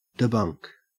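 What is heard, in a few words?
"debunk", Australian English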